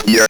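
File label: ROBVOX, robot voice; E-Lab; X Static Goldmine 1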